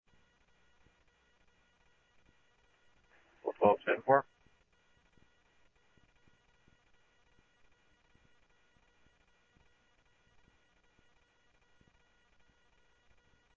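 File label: police department, scanner audio trunk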